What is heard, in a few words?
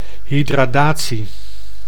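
"hydradatie", Dutch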